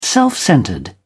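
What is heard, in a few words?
"self-centred", British English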